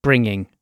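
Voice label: "bringing", British English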